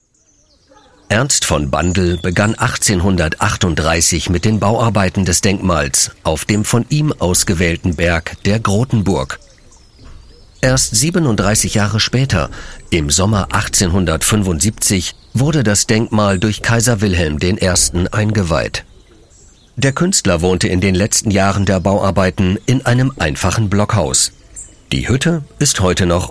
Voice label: Audioguide Hermannsdenkmal kurz; LOFT VOICES

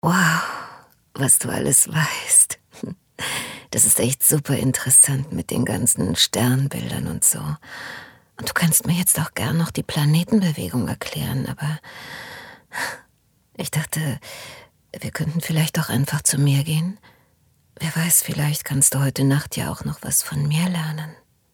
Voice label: Sexy

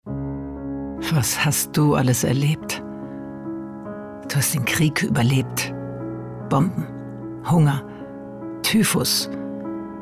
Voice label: mit Musik, Audiobook (Hörbuch), German; Hildegard Knef "So nicht."

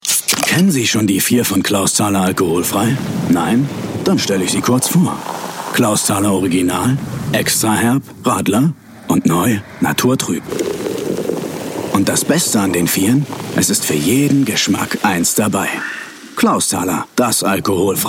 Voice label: Werbung; Clausthaler

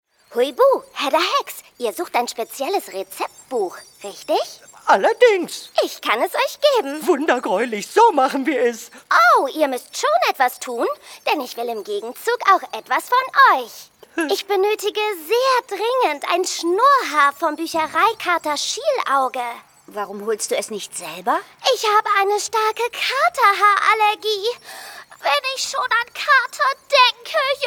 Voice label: Hoerspiel; Der kleine Hui Buh

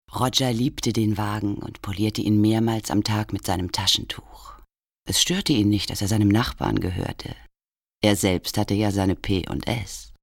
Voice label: erzaehlung

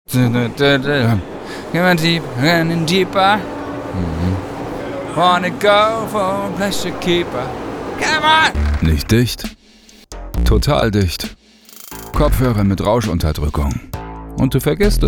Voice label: SPOT; Kopfhoerer

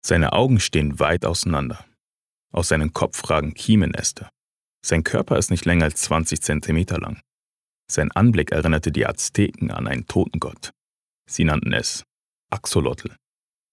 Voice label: Dokumentarischer Text

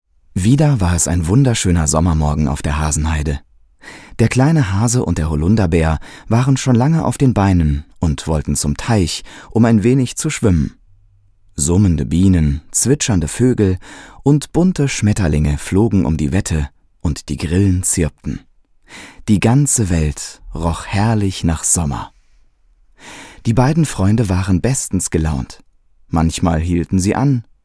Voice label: Hoerbuch; LOFT VOICES

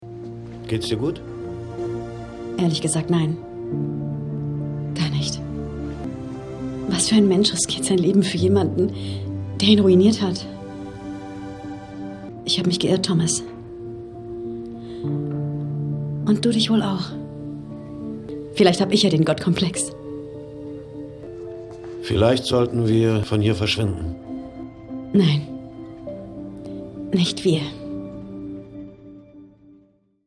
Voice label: synchron real drama; do you believe

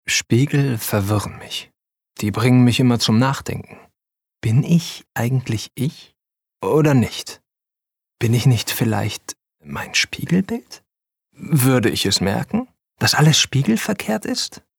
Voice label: Innerer Monolog

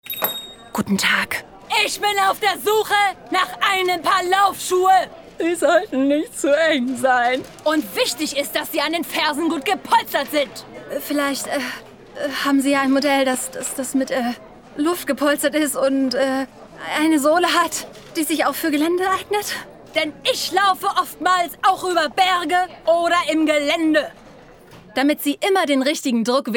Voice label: Werbung Hochdruckreiniger verschiedene Emotionen Stimmlagen; LOFT VOICES

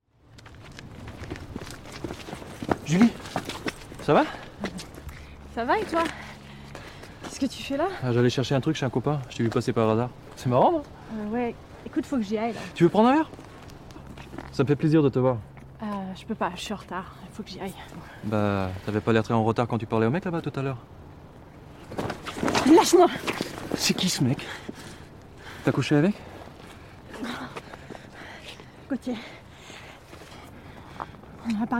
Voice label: Französisch Hörspiel

